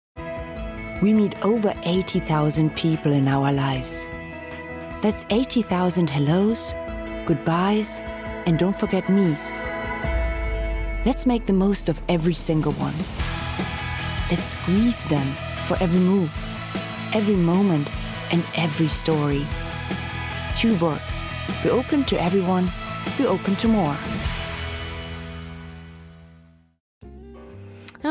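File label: German, English Accent; GoLocalise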